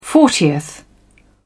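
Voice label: "fortieth", English, British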